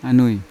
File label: "anui" brother, Yawa